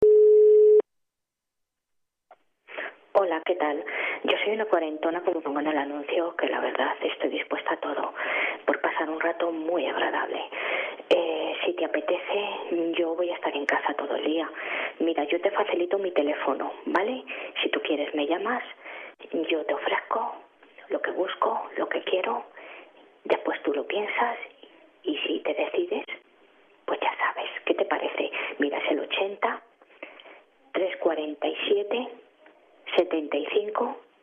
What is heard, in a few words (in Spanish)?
locución dialoga